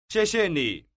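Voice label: "xiexie ni" Chinese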